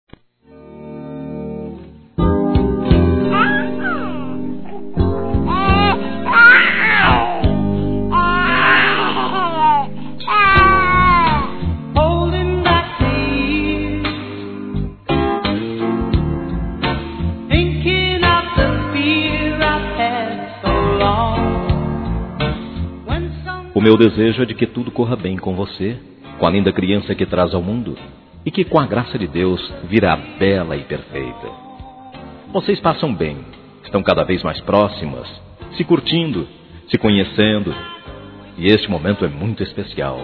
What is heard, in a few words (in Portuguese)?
Desejando Bom Parto Masculino; Telemensagens; Felicitações; Telemensagens 2017